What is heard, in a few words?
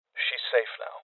Voices Cop Evacuated Male; rebel cops 2019